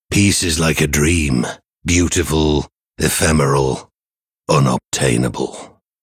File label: Male Human Idle; Daelin